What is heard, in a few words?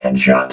"headshot", robot